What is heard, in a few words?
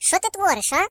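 "Nutter", British English; hedgewars; Default uk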